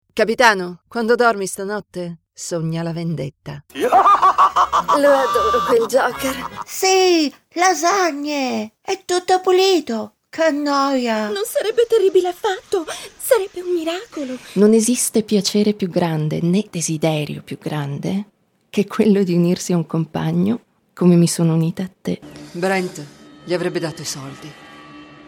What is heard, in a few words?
italian character